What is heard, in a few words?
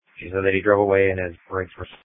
Sheriff Dispatch